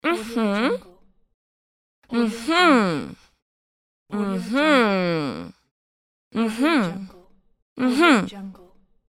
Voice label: female confirmation; medusamusiclab

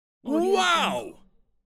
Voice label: announcer saying wow

